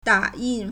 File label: "da3 yin4", Chinese